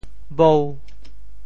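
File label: "bou5", Teochew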